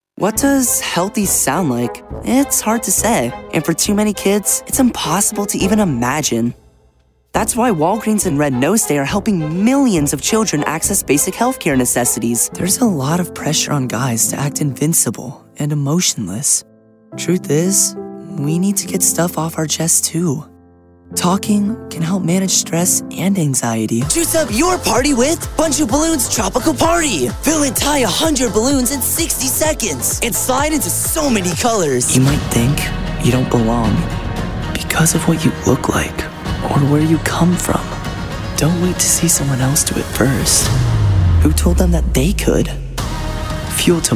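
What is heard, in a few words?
English Commercial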